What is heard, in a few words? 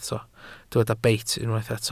"beit", Welsh